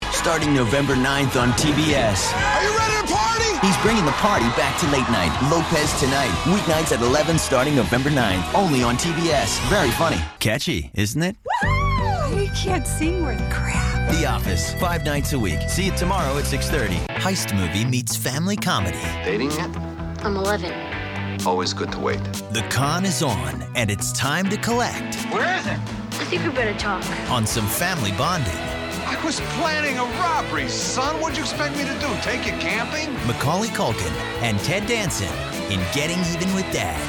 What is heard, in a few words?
Male, promos